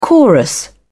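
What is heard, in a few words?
"chorus", British English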